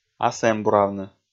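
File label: "асэмбуравны", Komi, male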